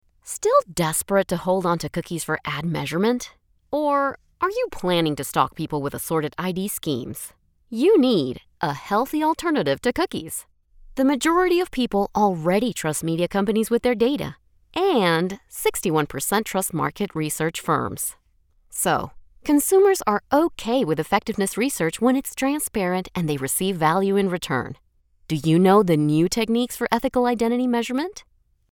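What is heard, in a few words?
English Latino, female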